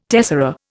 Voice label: "tessera", Indian